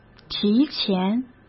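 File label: "提前" Mandarin Chinese; chinese flashcards; hsk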